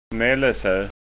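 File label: "nalleso", Scanian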